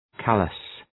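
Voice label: "callus", English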